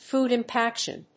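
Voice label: "Food impaction", English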